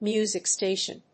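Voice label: "music station", English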